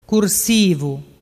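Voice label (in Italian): "cursivu", Vernazzese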